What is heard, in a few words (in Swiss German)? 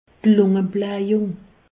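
"lungeblajung", Alsatian; medecine; emphysème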